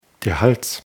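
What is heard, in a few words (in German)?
"hals", German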